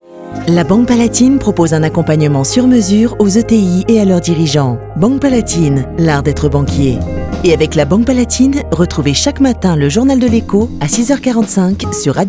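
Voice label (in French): Billboard Radio Droit Rassurant; BANQUE PALATINE 2017; Radio Classique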